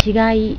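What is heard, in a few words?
"chigai", Japanese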